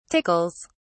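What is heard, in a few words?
"tickles", British English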